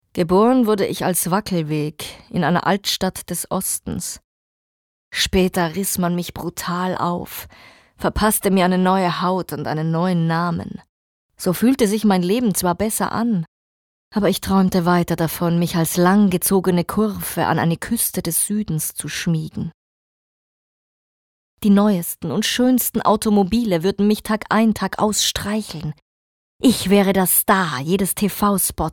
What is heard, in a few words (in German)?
Schauspiel Neutral